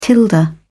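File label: "tilde", British English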